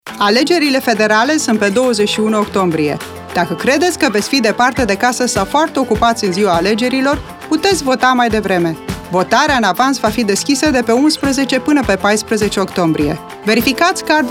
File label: Romanian, radio advert; Early Voting Option